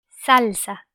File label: "salsa", Spanish